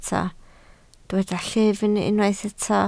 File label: "llyfn", Welsh